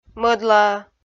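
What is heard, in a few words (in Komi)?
"мӧдлаӧ", Komi, female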